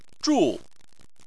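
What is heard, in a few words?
"zhu" Chinese, fourth tone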